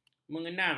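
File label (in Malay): "mengenang", Malay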